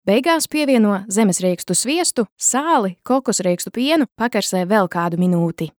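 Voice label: Latvian; lv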